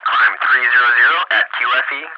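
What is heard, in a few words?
"climb 300 at QFE", English speech; ATC; DCS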